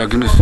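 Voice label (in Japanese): "yagimisu", 南山形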